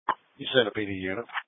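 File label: Police Patrol Dispatch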